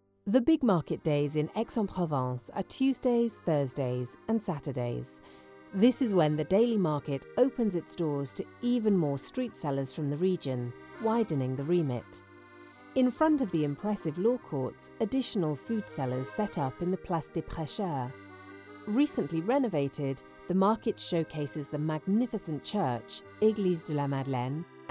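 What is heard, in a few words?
British English, Audioguide; GoLocalise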